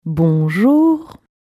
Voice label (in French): "Bonjour" en montant; "Bonjour" crescendo